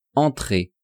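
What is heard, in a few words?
"entrer", French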